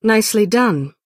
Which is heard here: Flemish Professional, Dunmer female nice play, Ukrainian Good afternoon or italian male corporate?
Dunmer female nice play